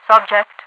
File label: "subject", radiovoice; Half Life 2